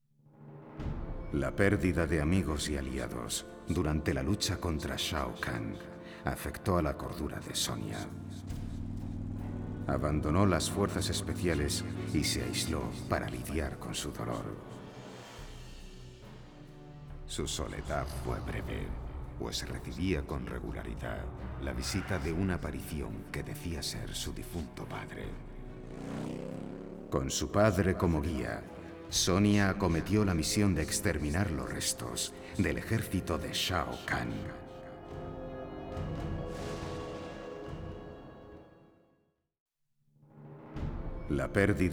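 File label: music voice-over endings Spanish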